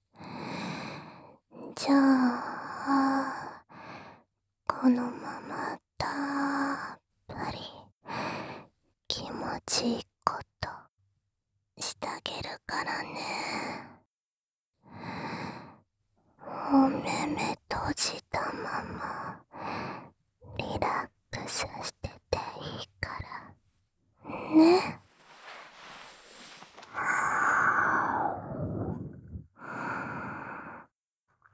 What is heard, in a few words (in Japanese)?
添い寝 手コキ カウントダウン 射精 お掃除フェラ 囁き 効果音あり; 添い寝+まっ～～～ったり手コキ、カウントダウン射精＆お掃除フェラ; 射精音無し; ダウナー猫娘; KU100収録